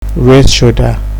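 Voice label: "raise shoulder", Nigerian Pidgin, male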